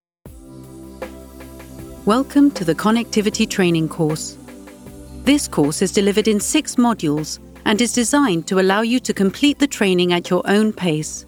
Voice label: e-learning

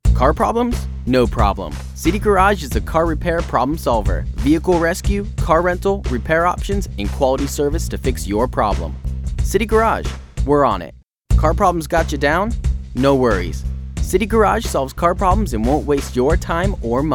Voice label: Radio Spots

